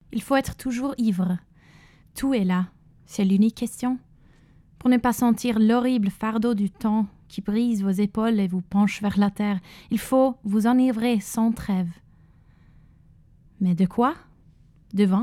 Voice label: Francais; Enivrez-Vous